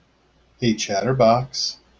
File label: hey chatterbox, en-us